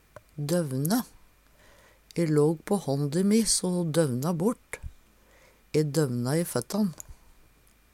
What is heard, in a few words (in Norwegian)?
"dovne", Numedalsmål